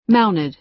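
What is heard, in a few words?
"mounted", American English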